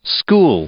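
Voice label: "school", English; noun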